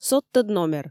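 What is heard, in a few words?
"содтӧд номер", Komi, female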